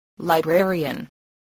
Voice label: "librarian", spelling